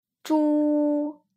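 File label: "zhu", Mandarin pinyin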